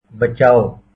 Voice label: Help, hindi